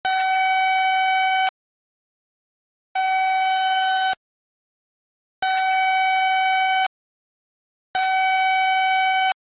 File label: Weather Warning P S A; Lethal Company